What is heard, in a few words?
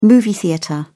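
"movie theater", British English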